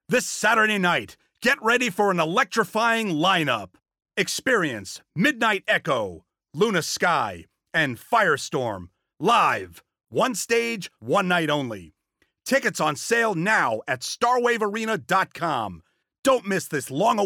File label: Commercials concert promo